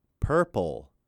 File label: "Purple", M